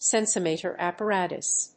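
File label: "senso-motor apparatus", English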